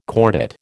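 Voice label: "cordate", American English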